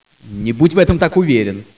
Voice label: completely wrong, scientist

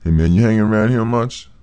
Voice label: male neutral talk; kingpin

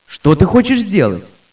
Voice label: "hello", scientist

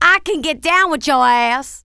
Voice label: angry, female; kingpin; mona

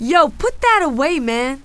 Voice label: put away, female; kingpin; beth

